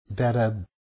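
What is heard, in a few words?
better, New York City English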